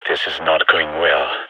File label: scared emote; gign